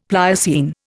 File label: "Pliocene", Indian; Dictionary